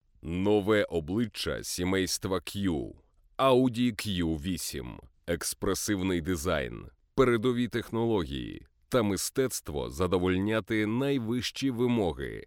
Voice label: ukrainian voiceover locutor ucrania, Ukrainian male voice over